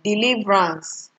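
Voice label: "deliverance", Nigerian Pidgin, female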